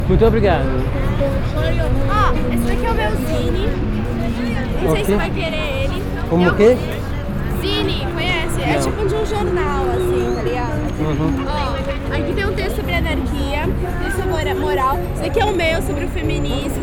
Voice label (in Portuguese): Papo Na Rua, Fieldrecordings Brasilien